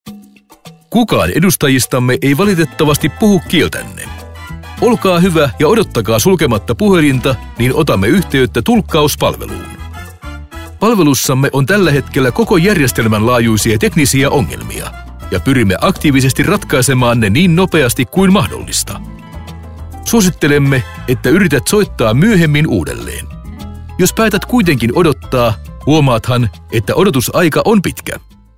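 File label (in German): telefonansagen sprecher Finnish; Native Speaker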